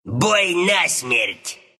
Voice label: announcer type death match ru; dlc clockwerk announcer